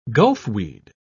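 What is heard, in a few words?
"gulfweed", British English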